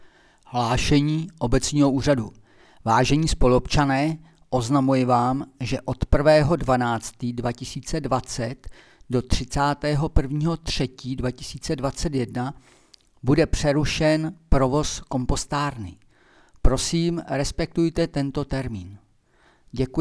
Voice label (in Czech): rozhlas preruseni provozu kompostarny